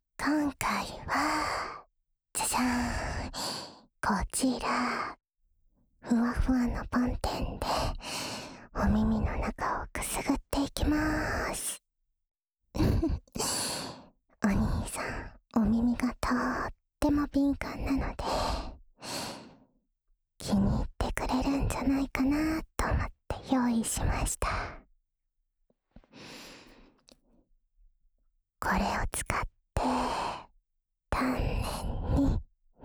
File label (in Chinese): 助眠用 梵天掏耳循环（左耳）, ASMR